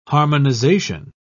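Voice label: "harmonisation", British English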